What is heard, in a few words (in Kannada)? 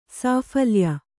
"ಸಾಫಲ್ಯ", Kannada